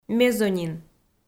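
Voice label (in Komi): "мезонин", Komi-Zyrian, female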